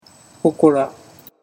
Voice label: "hokora", Japanese

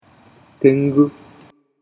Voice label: "tengu", Japanese

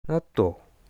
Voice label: "nattou", Japanese

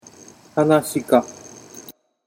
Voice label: "hanashika", Japanese

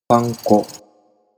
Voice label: "panko", Japanese

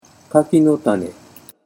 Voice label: "kakinotane", Japanese

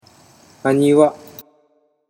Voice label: "haniwa", Japanese

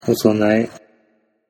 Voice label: "osonae", Japanese

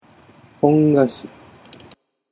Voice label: "pongashi", Japanese

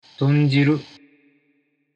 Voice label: "tonjiru", Japanese

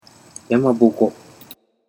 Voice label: "yamaboko", Japanese

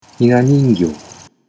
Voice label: "hinaningyou", Japanese

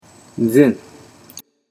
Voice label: "zen", Japanese

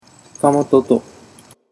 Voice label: "kamatoto", Japanese

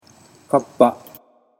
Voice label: "kappa", Japanese

